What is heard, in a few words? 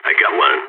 enemy down, balkan; vo